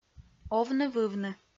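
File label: "овны-вывны" Komi-Zyrian, female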